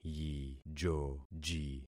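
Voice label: "yijoji", concatenated syllables; Affixing experiment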